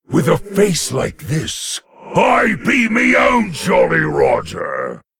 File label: Male Lich; PIRATE DAY